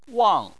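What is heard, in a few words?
"wang", Chinese, fourth tone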